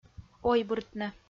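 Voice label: "ойбыртны" Komi-Zyrian, female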